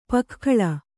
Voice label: "ಪೊಗೆಗೊಳ್", Kannada

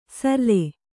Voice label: "ಸರ್ಲೆ", Kannada

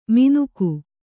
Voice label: "ಮಿನುಕು", Kannada

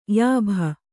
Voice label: "ಯಾಭ", Kannada

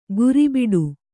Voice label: "ಗುರಿಬಿಡು", Kannada

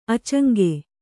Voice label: "ಆಚಂಗೆ", Kannada

